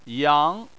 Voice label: "yang2", Chinese